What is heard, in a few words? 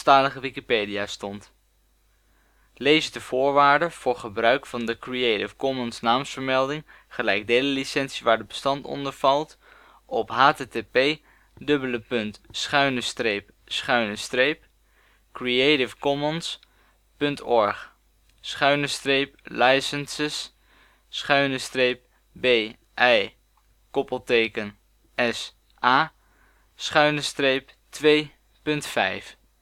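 Rioni article, Dutch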